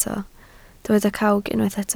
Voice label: "cawg", Welsh